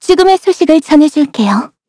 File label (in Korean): Vox Skill, kr; Xerah; kings raid